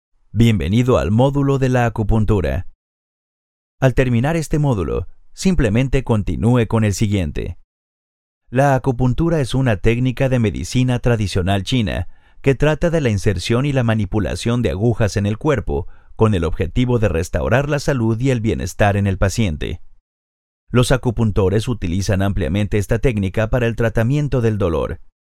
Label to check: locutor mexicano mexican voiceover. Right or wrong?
Right